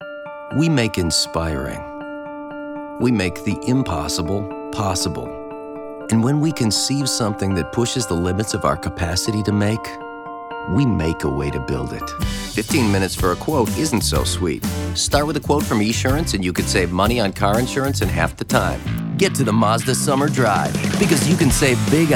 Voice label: Commercial